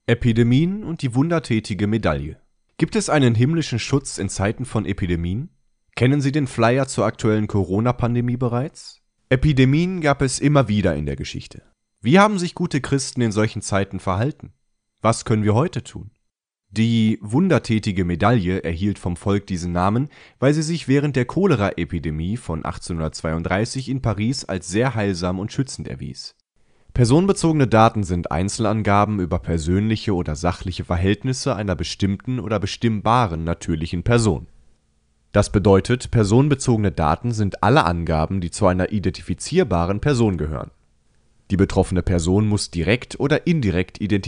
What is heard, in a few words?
de male; lektoring